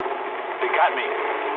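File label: aircraft destroyed mood low, speech English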